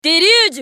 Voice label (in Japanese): ウォーロック女 デリュージュ